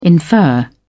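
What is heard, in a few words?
"infer", British English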